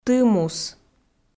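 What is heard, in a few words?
"ты-мус", Komi, female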